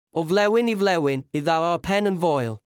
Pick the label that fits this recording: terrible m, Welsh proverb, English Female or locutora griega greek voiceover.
Welsh proverb